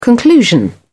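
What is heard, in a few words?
"conclusion", British English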